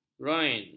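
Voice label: "groin", Malay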